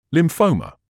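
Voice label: "lymphoma", British English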